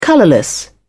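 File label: "colourless", British English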